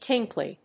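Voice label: "tinkly", English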